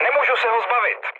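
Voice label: aircraft damaged, Czech, mood med; War Thunder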